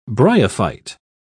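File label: "bryophyte", English, British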